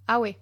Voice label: female hello, latin